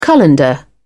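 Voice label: "colander", British English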